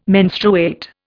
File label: "menstruate", Indian